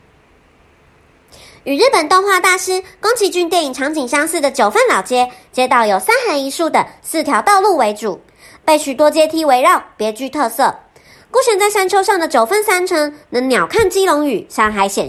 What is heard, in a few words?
character